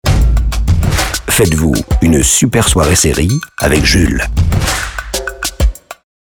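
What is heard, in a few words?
billboard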